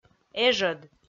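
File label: "эжӧд" Komi, female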